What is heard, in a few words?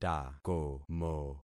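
"takomo", concatenated syllables; Affixing experiment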